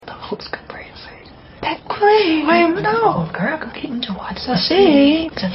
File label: WHISPERING